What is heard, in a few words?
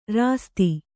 "ರಾಸ್ತಿ", Kannada